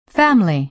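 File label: "family", American English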